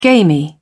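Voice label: "gamy", English, British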